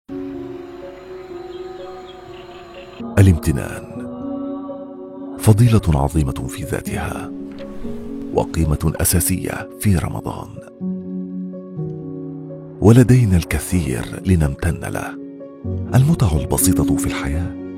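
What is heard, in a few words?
Arabic Voiceover Male Emirates bank Narration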